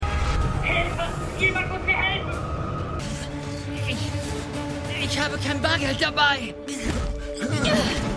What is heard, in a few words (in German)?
Mann; Supergirl